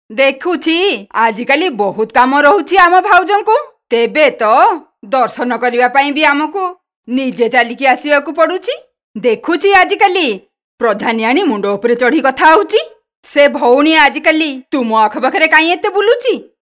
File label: Oriya female